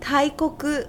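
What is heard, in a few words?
"taikoku", Japanese; kanji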